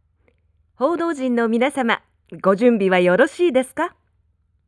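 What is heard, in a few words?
"houdoujin", Japanese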